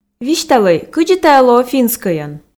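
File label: "висьталӧй кыдзи тайӧ лоӧ финскӧйӧн", Komi, female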